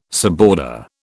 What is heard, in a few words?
"suborder", British